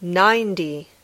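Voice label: "ninety", English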